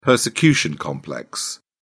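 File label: "persecution complex", British English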